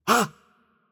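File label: Arabic attack light voice; bloom minigame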